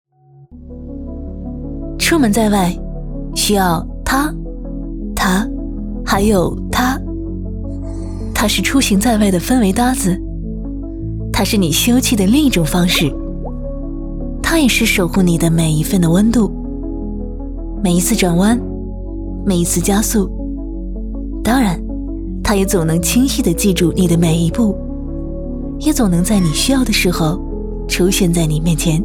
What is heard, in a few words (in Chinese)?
女 广告; 捷途